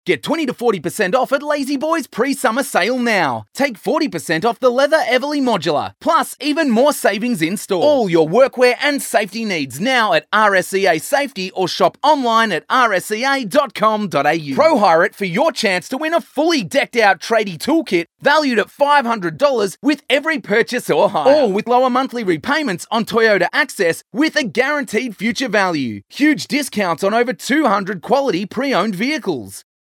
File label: Hard Sell